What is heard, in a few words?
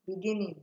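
"beginning", Nigerian Pidgin, female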